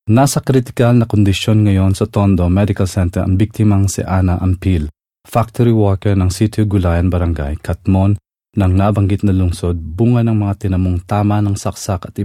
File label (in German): Philippinen M